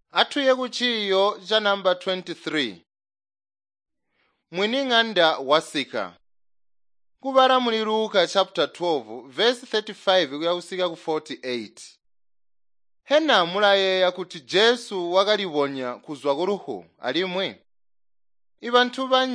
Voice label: tonga plateau, drama; chitonga bsz nt drama

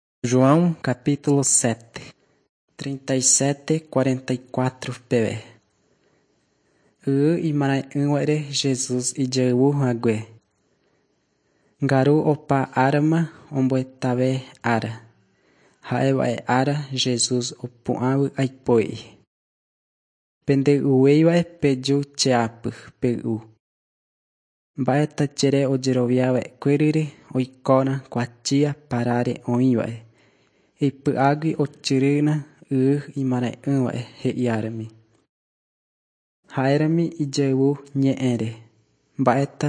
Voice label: john guarani mbya, bible

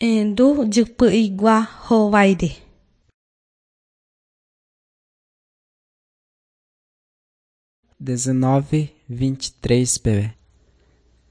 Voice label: john guarani mbya, bible

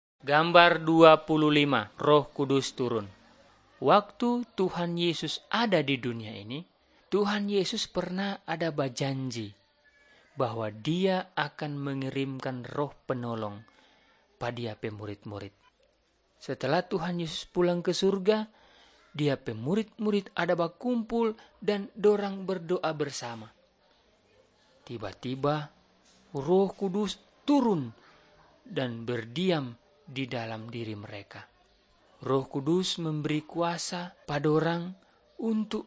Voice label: malay manado; good news

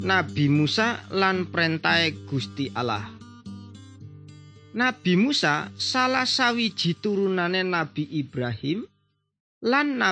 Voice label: good news jawa indramayu, javanese bible

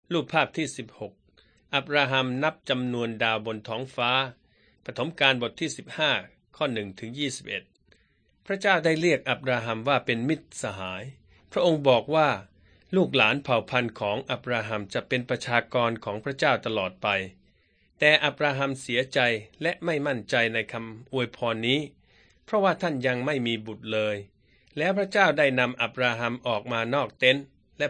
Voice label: beginning with god thai central

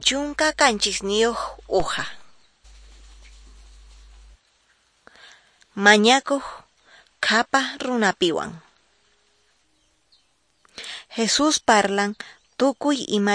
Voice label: quechua norte de bolivia apolo

